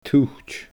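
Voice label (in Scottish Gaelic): "tuit", Scottish Gaelic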